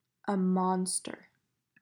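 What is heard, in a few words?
"Monster", English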